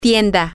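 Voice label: store, Spanish, female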